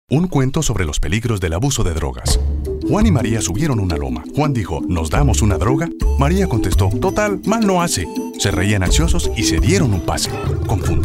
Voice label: spanish; jack jill